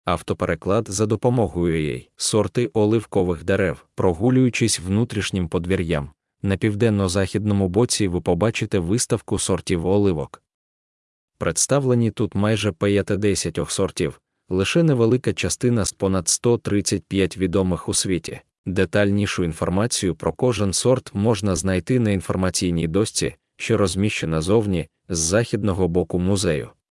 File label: ukrainian; Olive tree varieties